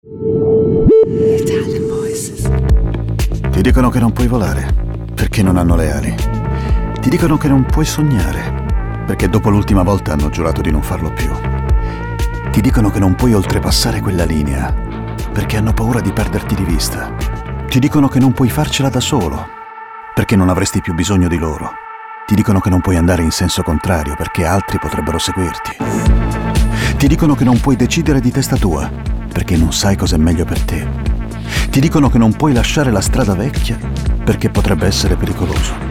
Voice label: ITALIAN VOICES Dark Husky

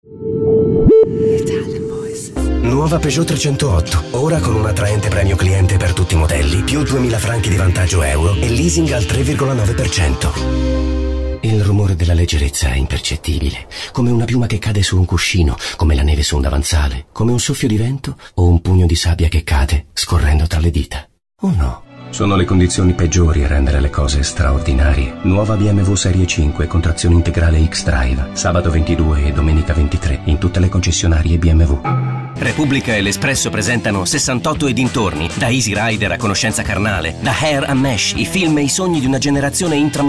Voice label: ITALIAN VOICES Authoritative Intense

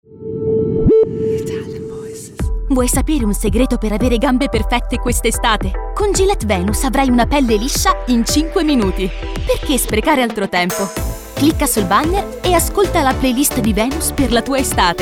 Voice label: Positive Bright, ITALIANVOICES

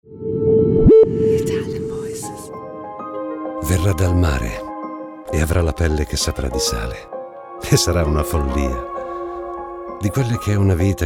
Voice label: ITALIAN VOICES; Naked Reel

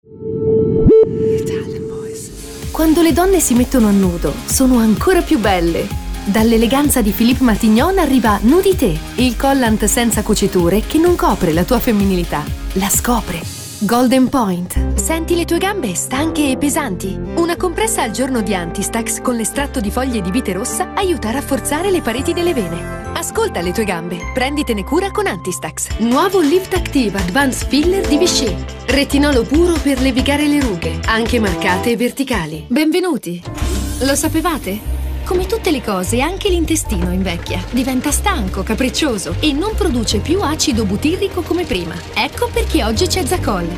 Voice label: Italian voices, Reassuring Authoritative

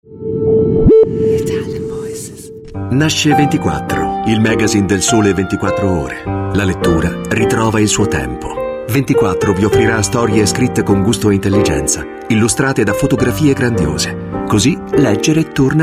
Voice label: ITALIAN VOICES Reassuring Smooth

